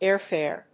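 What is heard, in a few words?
"airfare", English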